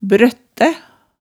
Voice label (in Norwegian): "brøttæ", hemsedalsmaalet tale